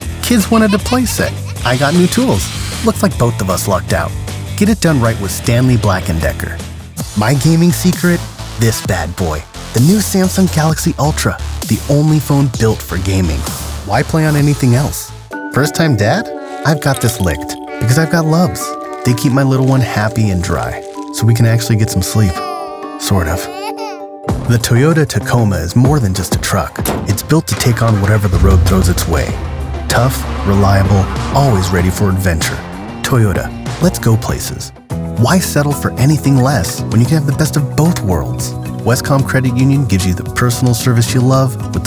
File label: Commercial